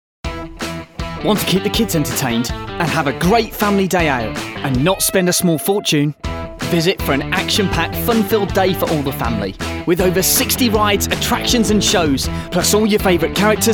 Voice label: english british promos